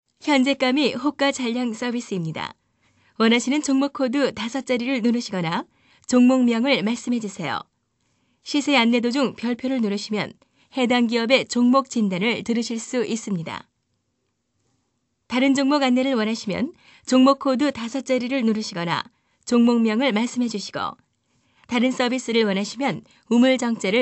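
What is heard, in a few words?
Korean female; ARS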